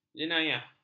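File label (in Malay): "jenayah", Malay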